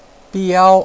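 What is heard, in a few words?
"biao1", Mandarin Chinese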